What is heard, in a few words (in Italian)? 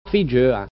"figiœa", genovese; fi'dZø:a/ (bambina)